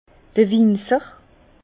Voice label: "winzer", Bas Rhin; vin; vigneron

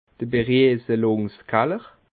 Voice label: "berieselungskaller", alsace

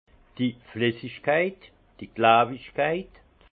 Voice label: "flessigkeit", alsace; vin; viscosité

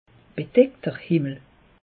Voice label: "bedeckter", alsace; meteo

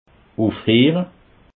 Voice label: "ufheere", alsace

